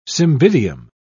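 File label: "cymbidium", British English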